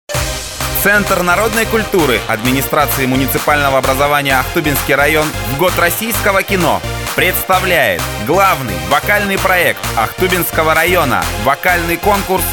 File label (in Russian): реклама; Ахтубинска